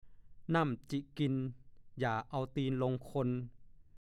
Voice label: "น่ำคันกินตีน", ภาษาโคราช; 1. น้ำกัดเท้า

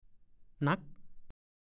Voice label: "หนั๊ก", ภาษาโคราช; อุจจาระ

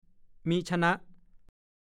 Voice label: "มิช่ะน่ะ", ภาษาโคราช

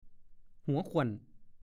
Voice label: "หัว", ภาษาโคราช; ลักษณนามของ สะบ้า สมุด เป็นต้น

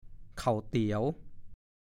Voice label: "เข่าเตี๋ยว", ภาษาโคราช; เข่าเตี๋ยว / 1. ก๋วยเตี๋ยว

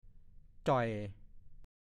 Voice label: "จอยฮอย", ภาษาโคราช; ผ่ายผอม โซ

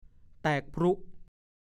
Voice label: "แตกพรุ่", ภาษาโคราช

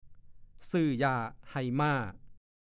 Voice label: "ซื่อหญ่าให่ม่า", ภาษาโคราช; 1. (สำนวน) เล่นม้า เสียพนันม้าแข่ง

